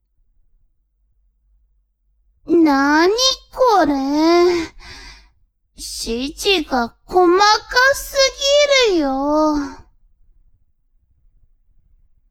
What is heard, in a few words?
woman